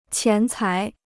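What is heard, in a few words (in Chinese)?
"钱财" Chinese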